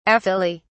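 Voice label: "aphylly", British English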